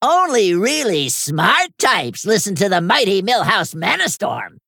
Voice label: Male Gnome Bark